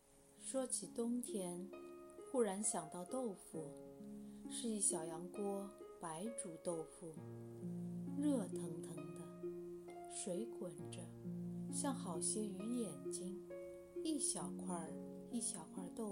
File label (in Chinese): 散文冬天